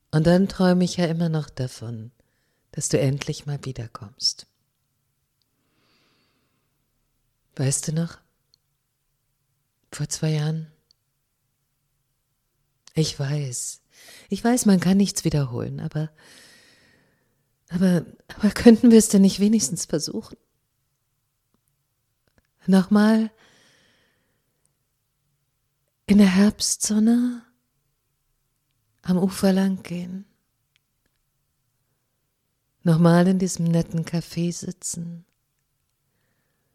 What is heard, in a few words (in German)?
ein Liebesbrief, sprecherin